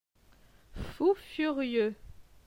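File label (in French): "Fou furieux", French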